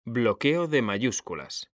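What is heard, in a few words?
bloq mayus, Spanish; mekanta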